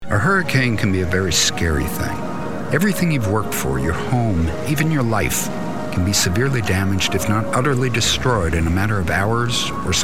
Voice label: COMMERCIAL